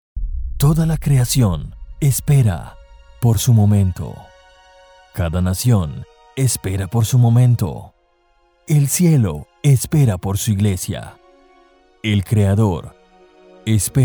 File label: Casting Peru Promo; English (North American)